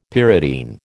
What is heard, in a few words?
"pyridine", American